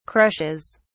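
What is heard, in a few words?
"crushes", British English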